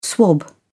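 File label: "swab", British English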